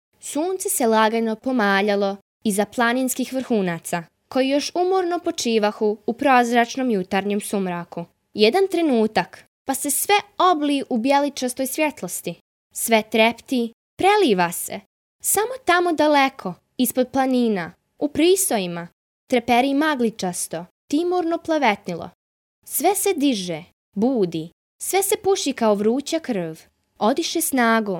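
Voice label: Bosnian girl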